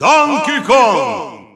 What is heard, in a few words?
Russian Announcer; Donkey Kong; SSBU